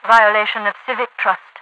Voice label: violation of civic trust, radio voice; Half Life 2